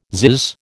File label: "zizz", American